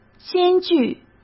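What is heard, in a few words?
"艰巨", Mandarin Chinese; chinese flashcards; hsk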